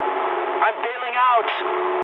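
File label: English bailout mood med; War Thunder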